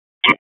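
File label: FIRE Operations, p25; FIRE OPS 2; wake p25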